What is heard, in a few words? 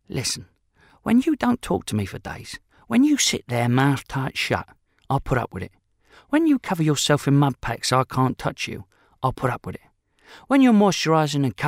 Narration; A Desire to Kill; Vocal Point